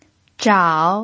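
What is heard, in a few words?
"zhao" Mandarin, third tone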